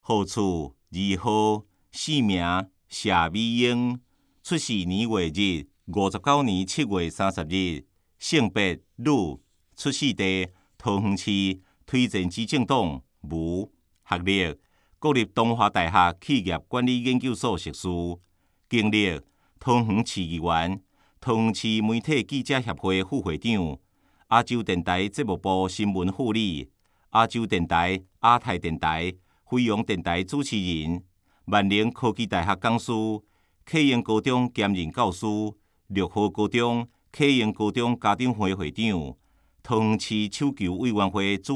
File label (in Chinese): 台語; 有聲公報; 市議員